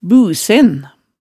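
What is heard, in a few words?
"busen", Hemsedal dialect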